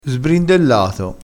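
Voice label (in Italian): "sbrindellato", dialettale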